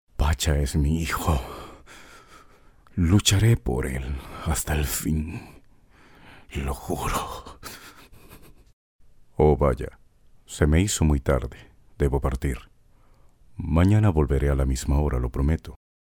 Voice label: locutor latinoamericano spanish american voiceover